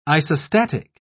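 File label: "isostatic", British English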